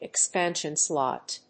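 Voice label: "EXPANSION SLOT", English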